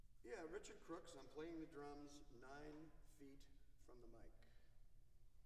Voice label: Percussion Imaging Tests, Drum 9 Feet, Live Studio: Announcement